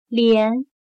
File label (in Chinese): "连", chino